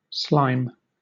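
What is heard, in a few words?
"slime", British English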